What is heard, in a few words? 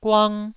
"guang1", taiwanese